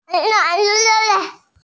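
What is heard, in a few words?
"zhi4zao4" Mandarin Chinese, female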